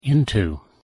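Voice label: "into", English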